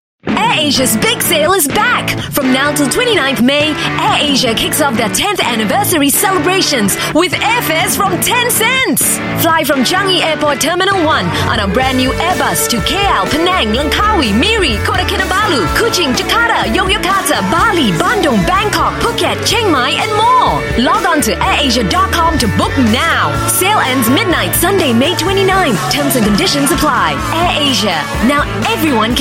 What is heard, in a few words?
Asian English Energetic; AirAsia